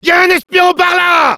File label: cloaked spy, fr; Demoman